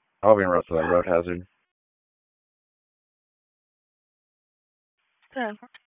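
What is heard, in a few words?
Police Southwest District Disp, radio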